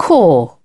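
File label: "cor", British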